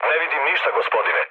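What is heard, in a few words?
Serbian chat; War Thunder; speech srb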